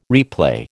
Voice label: "replay", American